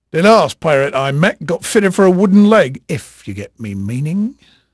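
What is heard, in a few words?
English male, voice; Pirates Of The Caribbean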